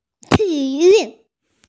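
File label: "ti3 yu4", Mandarin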